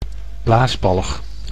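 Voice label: "blaasbalg", Dutch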